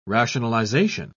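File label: "rationalisation", British English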